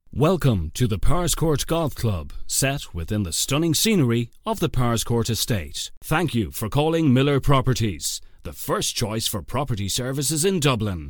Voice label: english irish ivr; Professional English / Irish Voice Over, TV and Radio Presenter